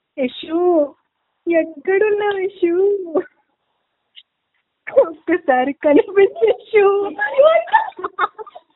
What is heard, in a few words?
voice note